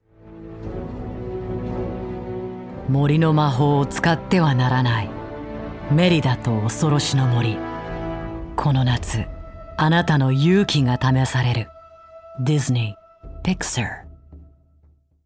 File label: jp horror movie cm